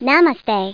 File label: Indian Greeting